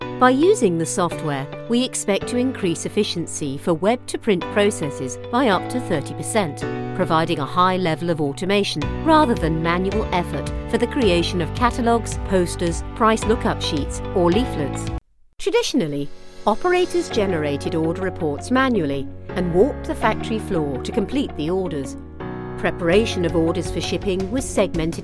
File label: Corporate samples